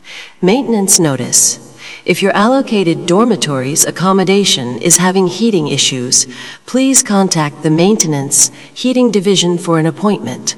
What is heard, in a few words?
tride announcement